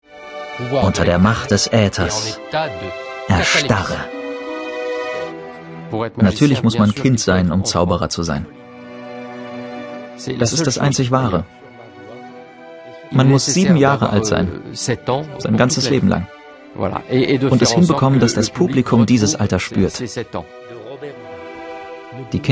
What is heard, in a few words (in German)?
Voiceover; arte